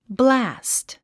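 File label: "blast", English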